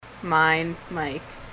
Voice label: mine, ontario